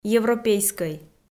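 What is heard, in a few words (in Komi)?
"европейскӧй", Komi, female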